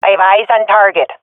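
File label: sees enemy, swat female; vo; agents; Source Model Packs; Counter Strike Model Pack; CS2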